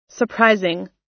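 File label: "surprising", US English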